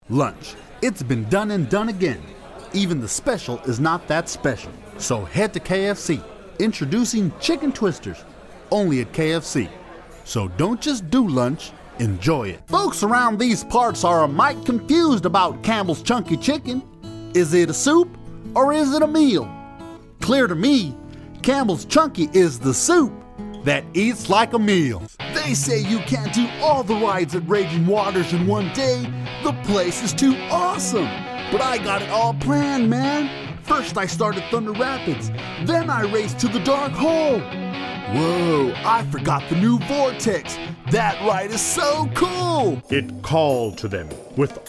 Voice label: commercial